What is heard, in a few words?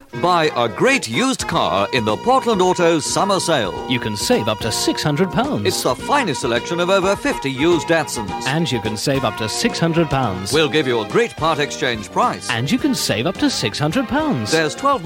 Radio Hallam Advert; Portland Autos Datsun